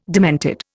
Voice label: "demented", Indian